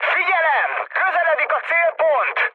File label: near waypoint, mood high, speech hun; War Thunder